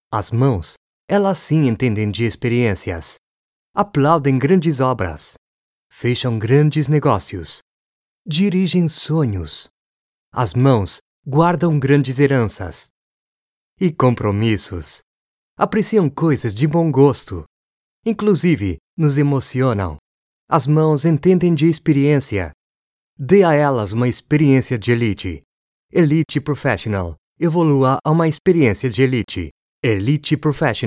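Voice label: Corporate Portuguese Brazilian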